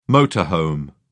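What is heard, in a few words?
"motor home", British English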